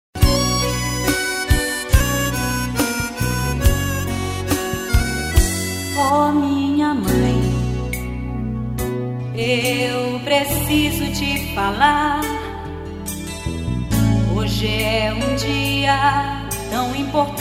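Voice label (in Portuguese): birthday MAE DISTANTE PLURAL female, telemensagem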